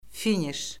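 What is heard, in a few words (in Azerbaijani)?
"finiş", Azerbaijani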